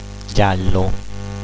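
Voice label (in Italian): "giallo", Italian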